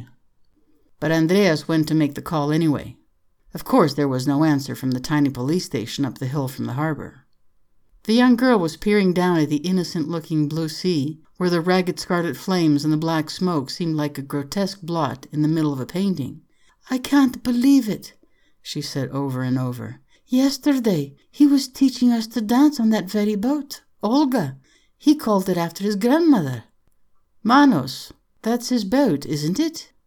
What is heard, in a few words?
Multi accent sample. Dialogue between a Greek an American an Englishman a German woman